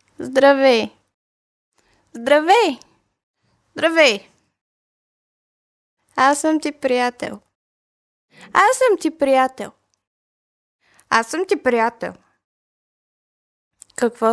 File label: vox bg child